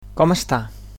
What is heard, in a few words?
"how are you", Catalan; Com està?